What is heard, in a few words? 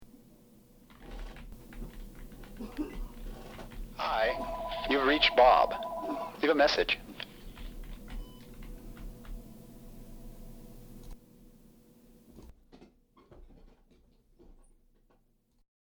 Bad Voice Over example; Body Tone Multimedia; Example of a bad Voice-Over